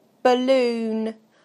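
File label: "balloon", British English; PictureDictionary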